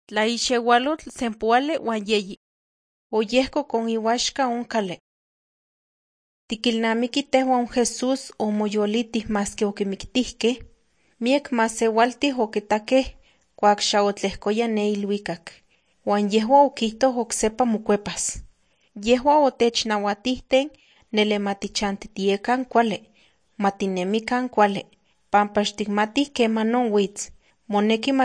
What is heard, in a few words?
nahuatl guerrero tlapa; the bible league nt drama